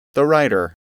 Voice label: "writer", English